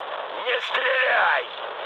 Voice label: player friendly fire, mood low, speech ru; War Thunder

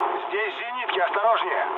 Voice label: damaged aa, mood low, speech ru; War Thunder

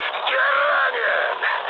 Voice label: damaged pilot, mood med, speech ru; War Thunder

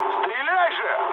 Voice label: attack position, mood low, speech ru; War Thunder

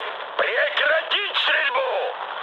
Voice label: player friendly fire, mood high, speech ru

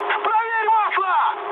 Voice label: damaged oil, mood high, speech ru; War Thunder

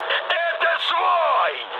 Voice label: player friendly fire, mood high, speech ru

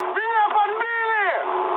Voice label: aircraft damaged, mood high, speech ru; War Thunder

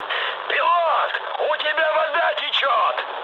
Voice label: damaged water, mood high, speech ru; War Thunder

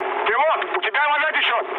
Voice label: damaged water, mood high, speech ru; War Thunder

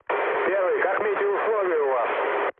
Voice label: chat, speech ru; War Thunder

